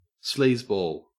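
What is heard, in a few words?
"sleazeball" English, Australian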